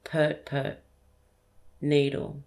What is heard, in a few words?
"pert-pert" needle